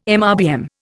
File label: "MRBM", Indian